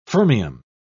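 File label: "fermium", English, British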